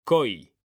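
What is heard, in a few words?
"COI", Spanish, male; mekanta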